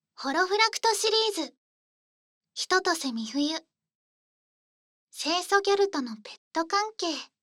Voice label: タイトル コール; ASMR

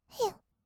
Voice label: "へう", kawaii voice; 1200 kawaii voice!!; 色々なワンショット

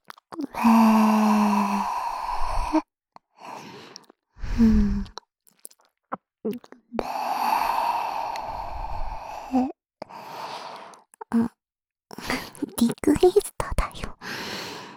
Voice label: べぇ～っ…, ASMR, 右耳

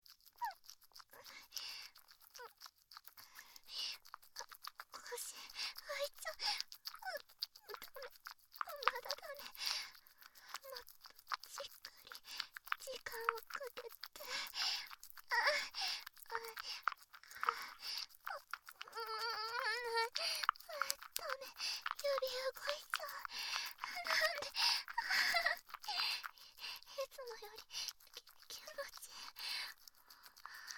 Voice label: オナニーボイス; かんなちゃん

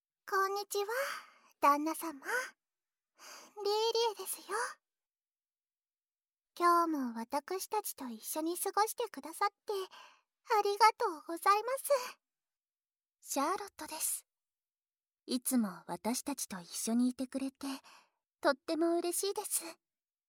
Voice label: 耳かき♪（効果音無し）; ASMR

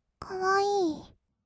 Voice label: かわいい, kawaii voice; ASMR; 色々なワンショット

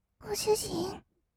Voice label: ご主人, kawaii voice; ASMR; 二人称; 1200 kawaii voice!!

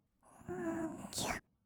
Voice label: 「ぎゅっ」, kawaii voice; 1200 kawaii voice!!; 色々なワンショット

